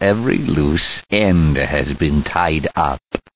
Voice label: cheer, voice commands; gman